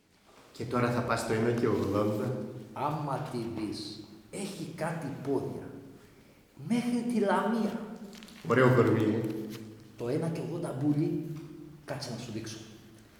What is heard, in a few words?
Theatre rehearsal; Πρόβα θέατρο